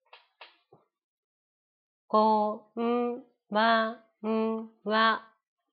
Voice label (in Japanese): ナレーション